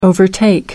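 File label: "overtake", English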